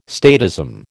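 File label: "statism", American English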